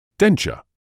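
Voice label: "denture", British English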